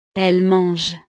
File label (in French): "elle mange", frances